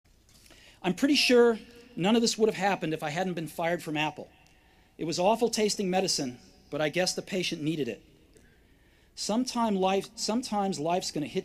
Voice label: Commencement Address; Steve Jobs; 2005; Stanford